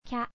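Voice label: "kya", Japanese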